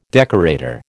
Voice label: "decorator", American